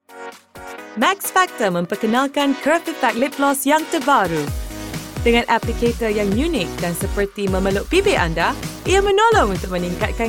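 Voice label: MS general, Malay; GoLocalise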